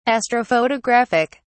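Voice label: "astrophotographic", British English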